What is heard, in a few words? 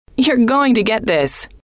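you Are Going To Get This, female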